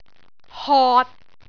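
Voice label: "hot6", Cantonese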